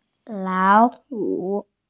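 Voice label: "lao3hu3", Mandarin Chinese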